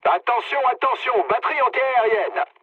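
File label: French damaged aa mood low; War Thunder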